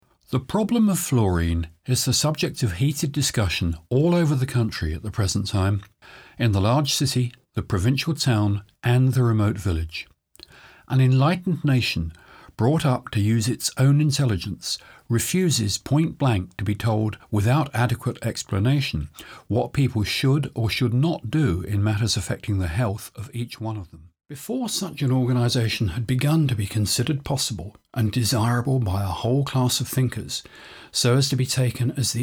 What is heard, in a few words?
Audiobooks